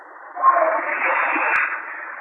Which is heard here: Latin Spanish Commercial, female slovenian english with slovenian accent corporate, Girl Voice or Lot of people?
Lot of people